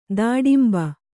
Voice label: "ದಾಡಿಂಬ", Kannada; dāḍimba; noun